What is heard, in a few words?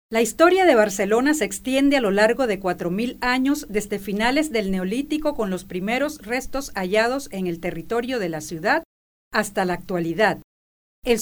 locutora panama panamanian voiceover; locutora de Panamá